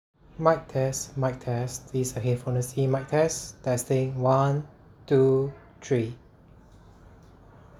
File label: WF1000XM3 Quiet